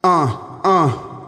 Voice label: "uh uh", Voice